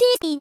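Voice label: "tspin", voice; Techmino; rin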